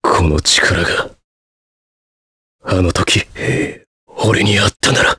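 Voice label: Vox Trs Japanese; Riheet; kingsraid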